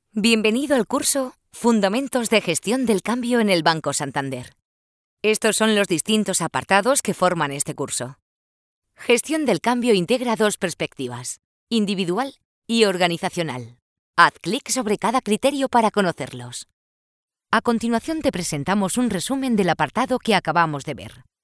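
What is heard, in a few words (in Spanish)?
e-learning, Spain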